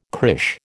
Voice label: "crÊche", American